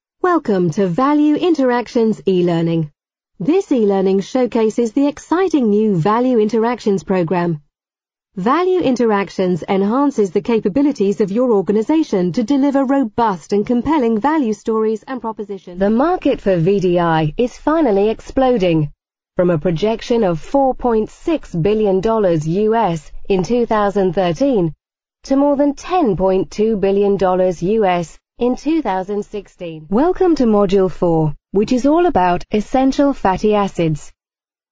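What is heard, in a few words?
British English, female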